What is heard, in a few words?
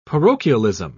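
"parochialism", British English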